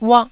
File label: "uah1", taiwanese